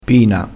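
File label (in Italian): "pina", dialettale, crespinese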